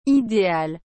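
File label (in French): "ideal", French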